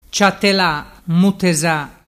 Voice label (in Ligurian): "ciatelamuteza", dialettu